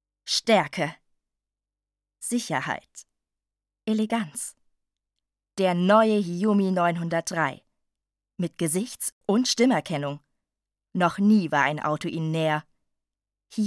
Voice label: German automotive